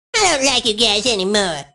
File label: "like", Furby